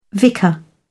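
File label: "vicar", British English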